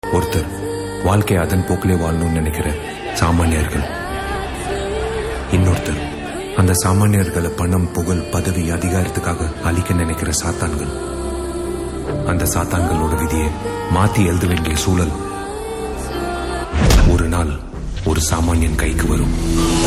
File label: Dialogue Ringtone; Vishal